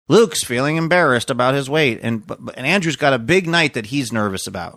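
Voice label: saying feeling embarrassed about his weight nasally voice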